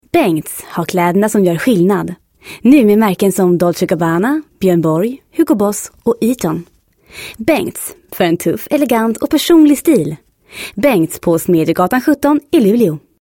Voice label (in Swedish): rostprov rikssvenska; dialekter